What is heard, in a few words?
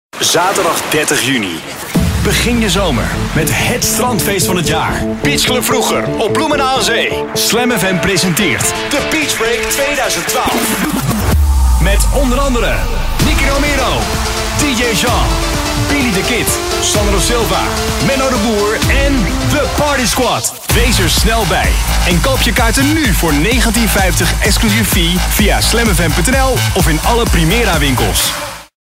commercial; SLAM!